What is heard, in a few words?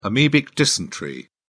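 "amebic dysentery", English, British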